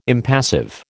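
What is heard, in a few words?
"impassive", American English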